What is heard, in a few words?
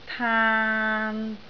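"taan3" Cantonese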